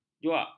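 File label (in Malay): "juak", Malay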